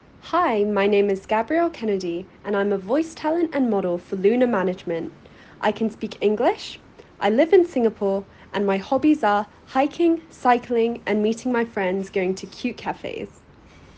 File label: English